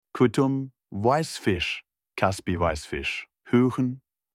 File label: whitefish in german